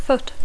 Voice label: "foot", English

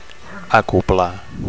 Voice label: "acoblar", Catalan; to connect; to join; to fit together; to couple; [IT] to dock

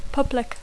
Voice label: "public", English

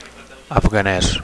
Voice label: "afganes", Catalan; (m / f) Afghan

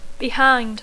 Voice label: "behind", English

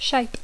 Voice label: "shape", English

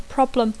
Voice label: "problem", English

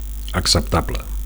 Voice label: "acceptable", Catalan

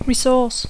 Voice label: "resource", English

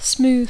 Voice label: "smooth", English; adjectiu; suau; llis; verb tr conj allisar; aplanar

